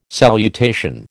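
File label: "salutation", American English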